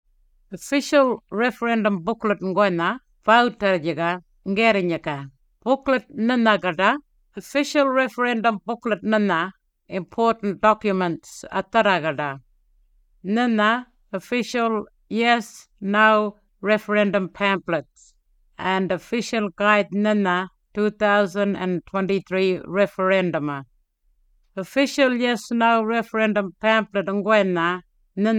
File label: Eastern Arrernte; Referendum Booklet